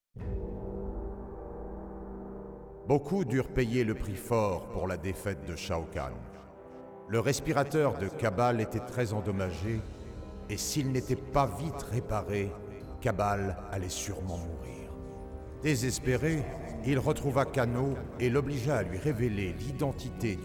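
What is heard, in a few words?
music voice over endings French; Mortal Kombat Komplete Edition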